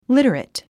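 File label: "literate", English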